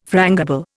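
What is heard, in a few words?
"frangible", Indian English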